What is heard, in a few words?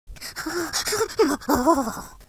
scared; Confucious